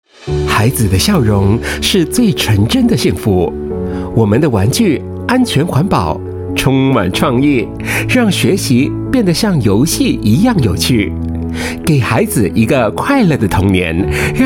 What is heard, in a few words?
Mandarin China Childcare Sincere